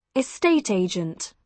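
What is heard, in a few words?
"estate agent", British English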